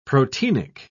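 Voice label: "proteinic", British English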